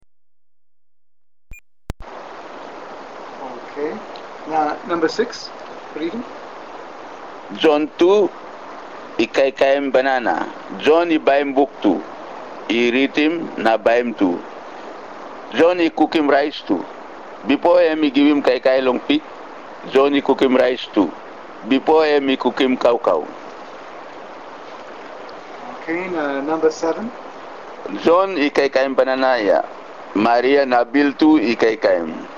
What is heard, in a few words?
Tok Pisin; TokPisinTexte